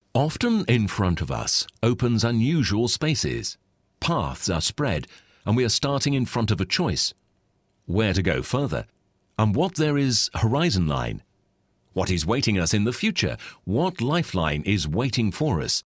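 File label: British narration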